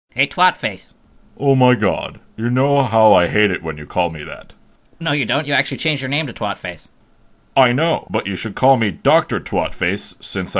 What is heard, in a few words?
Commercial Giant Iron Rod; fake commercials